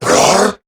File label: Surprised, Male